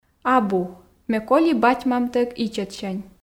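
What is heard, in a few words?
"абу ме коли бать-мамтӧг ичӧтсянь", Komi, female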